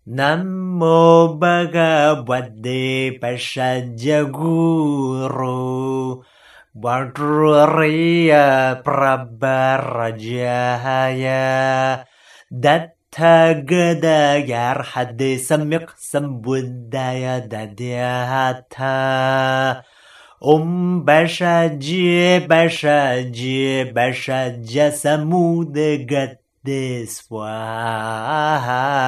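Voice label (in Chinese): 藥師咒》梵音直誦版; 藥師佛 藥師七佛 藥師琉璃光如來 Bhaisaijya Medicine Buddha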